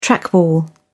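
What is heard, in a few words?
"trackball", British English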